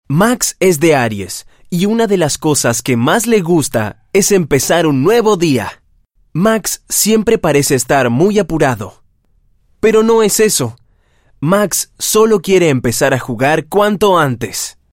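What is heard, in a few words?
Spanish Latin American, male; Music Bag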